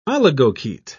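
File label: "oligochaete", British English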